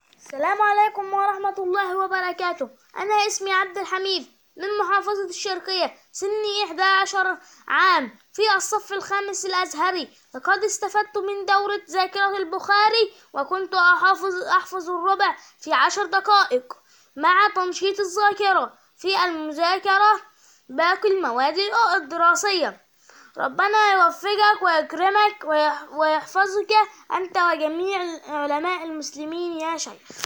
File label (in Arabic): طفل